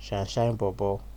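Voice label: "shine shine bobo", Nigerian Pidgin, male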